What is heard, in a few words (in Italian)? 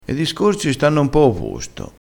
"e discorsi", vocabolario dialettale